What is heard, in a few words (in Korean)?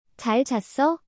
"잘 잤어？", Korean; チャル チャッソ？